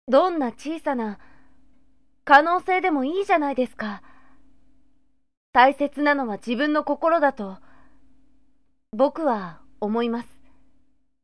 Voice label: sample boy